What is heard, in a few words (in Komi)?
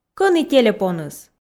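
кӧні телепоныс, Komi, female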